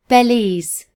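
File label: "belize", British English; gcompris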